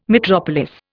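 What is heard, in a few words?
"metropolis", English, Indian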